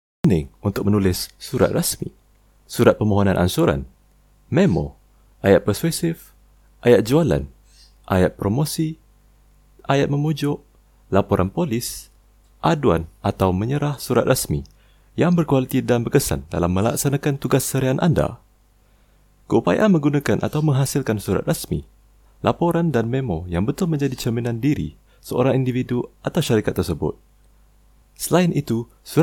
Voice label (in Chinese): 马来语男声